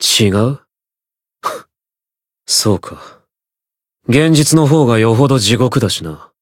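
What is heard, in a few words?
Voice story, Japanese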